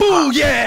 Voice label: "booyow", Voice; ooh ahh